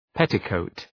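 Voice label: "petticoat", English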